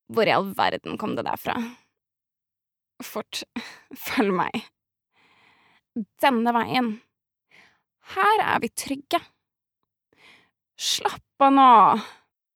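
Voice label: dub, Norwegian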